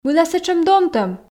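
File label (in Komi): мыйла сэтшӧм донтӧм, Komi, female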